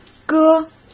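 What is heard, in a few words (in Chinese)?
"歌", Mandarin Chinese; chinese flashcards; hsk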